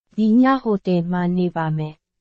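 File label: Burmese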